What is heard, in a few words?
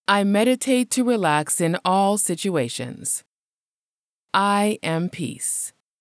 English Female; I Am Peace